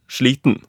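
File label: "sliten", Norwegian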